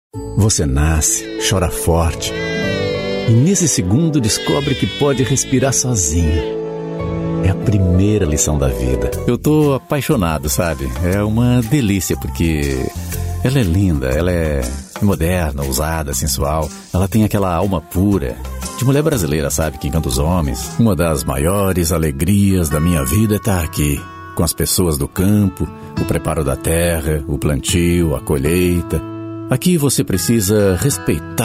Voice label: portuguese brazilian commercial; locutor profissional no Brasil